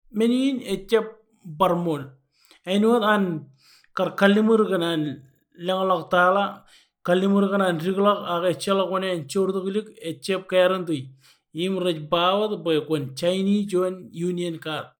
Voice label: Marshallese

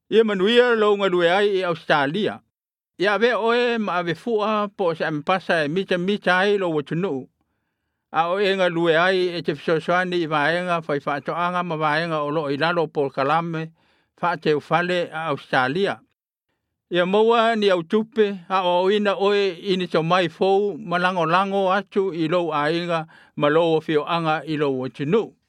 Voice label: Samoan

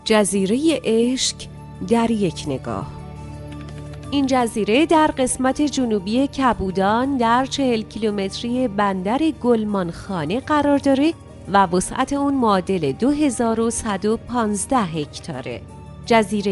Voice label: Farsi

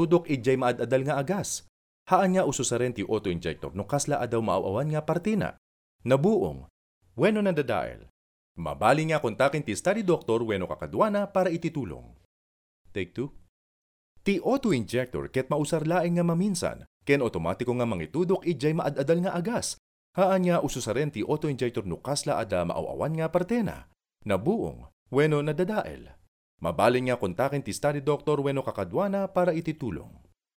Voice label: Ilocano Male

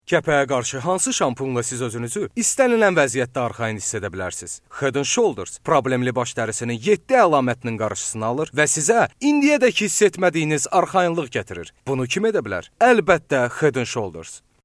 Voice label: AZERI

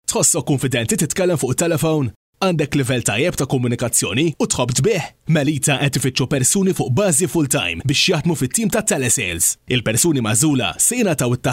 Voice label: MALTESE

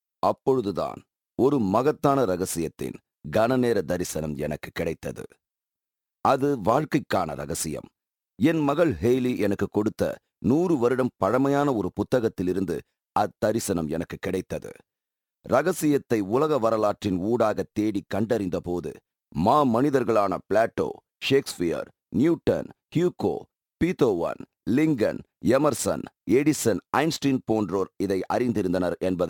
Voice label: Tamil, audiobook

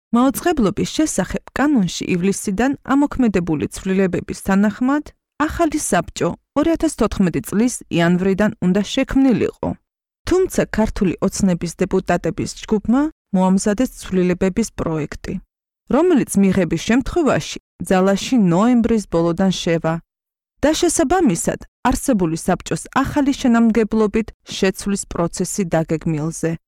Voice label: Georgian

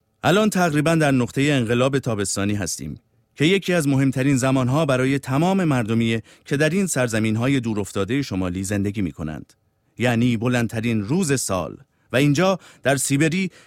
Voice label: Farsi

